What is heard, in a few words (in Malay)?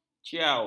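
"ciau", Malay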